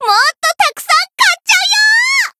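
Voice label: voice growth, Japanese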